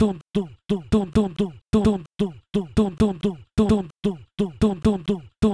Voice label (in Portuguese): BEAT VOZ